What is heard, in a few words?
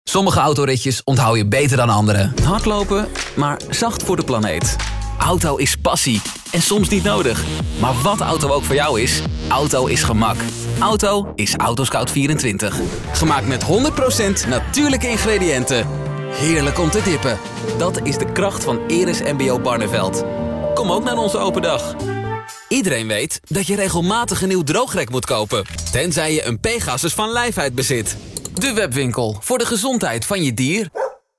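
Dutch, male, commercial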